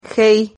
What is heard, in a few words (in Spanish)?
"jei", Silabas